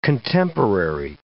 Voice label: "contemporary", English